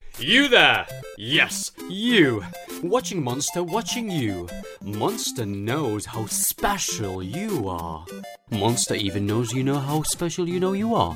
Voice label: male arabic italian and maltese multiple accents character compilation; devine voices